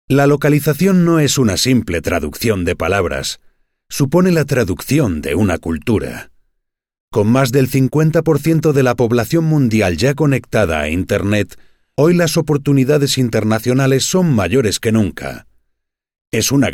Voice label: spanish spain male